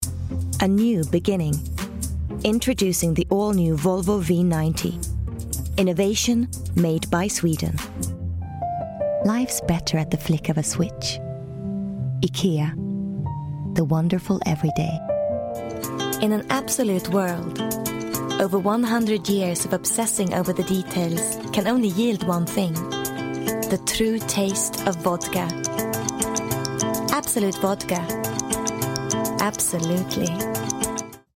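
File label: Swedish, English accent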